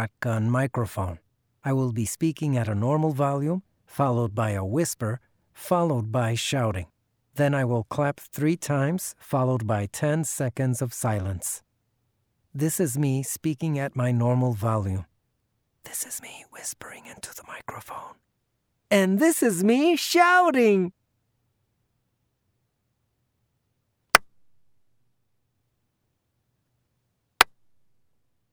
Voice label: raw studio sample